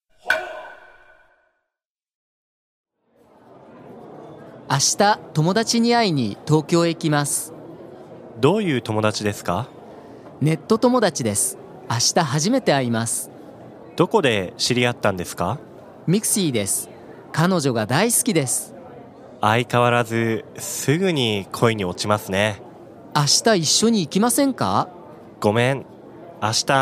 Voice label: dialog; JapanesePod101; Beginner Season 1